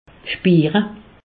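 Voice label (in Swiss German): "spiire", Alsatian; medecine; sentir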